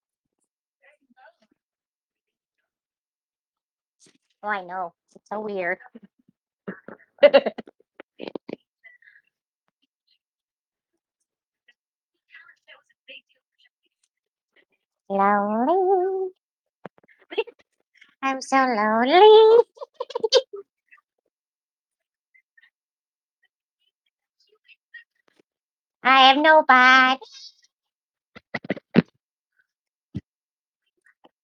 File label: voice message